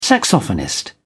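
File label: "saxophonist", English, British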